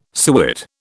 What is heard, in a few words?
"suet", British